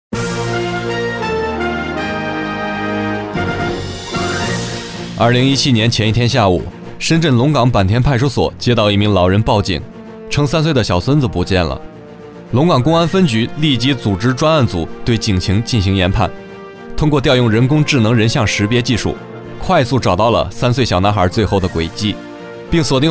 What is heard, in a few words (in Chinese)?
男 新闻 新闻播报